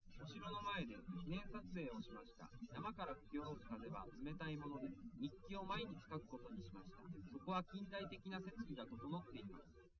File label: male, live rec; SiSEC08; SiSEC underdetermined